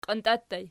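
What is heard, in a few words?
"qiantia" ergative plural, Archi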